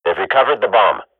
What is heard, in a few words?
radio bot bomb pick, swat; Counter Strike Model Pack; CS:GO